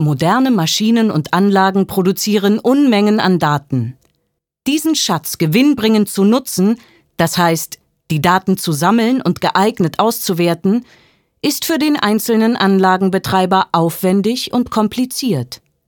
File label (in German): Werbung